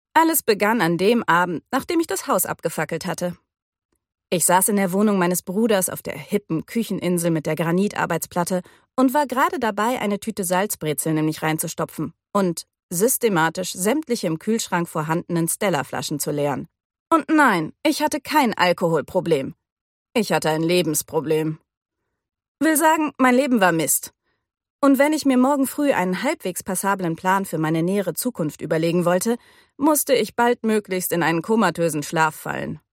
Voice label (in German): HOERBUCH; Mr Wrong Number